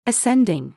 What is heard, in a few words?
ascending